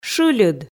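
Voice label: "шыльыд", Komi, female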